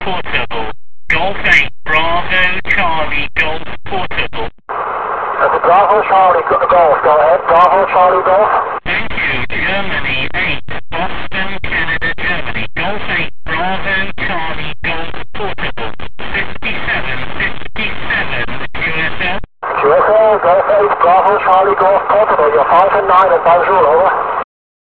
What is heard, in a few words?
qso